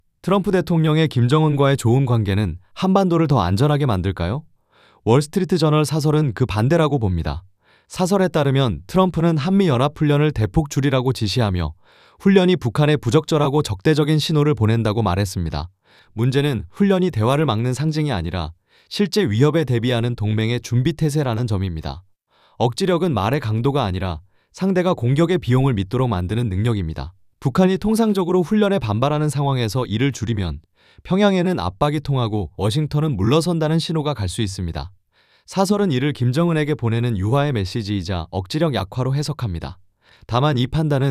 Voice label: narration